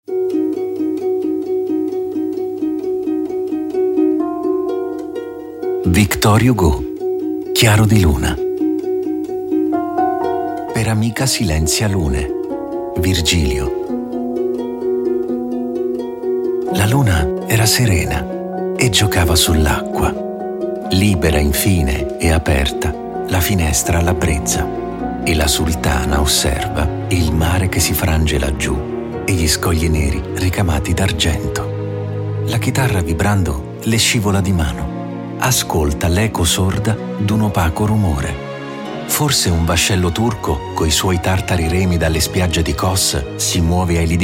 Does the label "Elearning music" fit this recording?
No